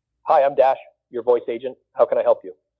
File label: Hi Im Dash Your Voice Agent, Voice AI Agent